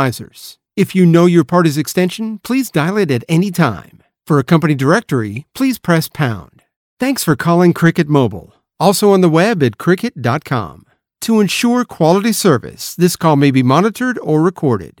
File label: US PHONE